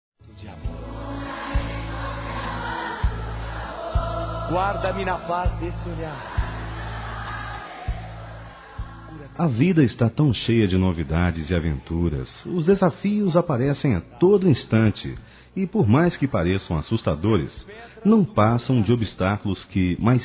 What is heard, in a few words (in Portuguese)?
ENCONTRO DE JOVENS, Telemensagens, Gospel, masc